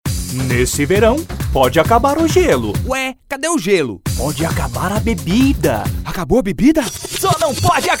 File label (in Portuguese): Spot RF Baterias